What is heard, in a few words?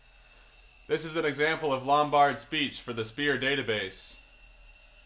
f16 clean, Clean Speech; F16 Noise; Lombard